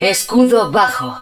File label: Shield Low, Spanish